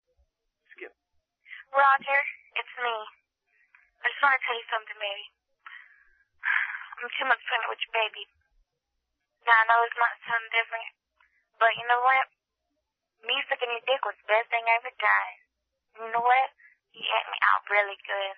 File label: weird phone message